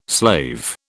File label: "slave", British